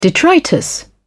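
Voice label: "detritus", British English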